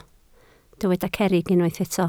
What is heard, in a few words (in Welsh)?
"cerrig", Welsh